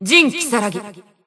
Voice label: System Voice; BBCP; Bullet